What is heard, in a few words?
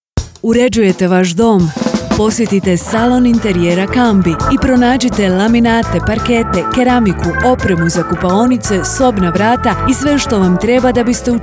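Croatian, female, radio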